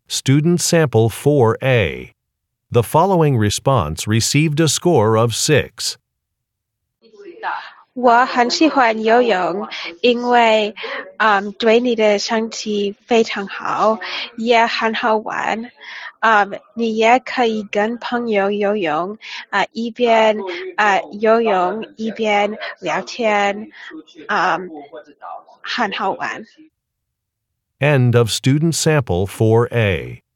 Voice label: chinese conversation